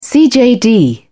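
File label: "cjd", British English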